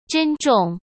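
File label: "珍重", Mandarin Chinese; chinese-flashcards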